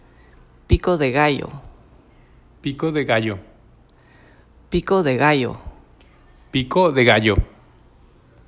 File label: Pico de gallo pronounciation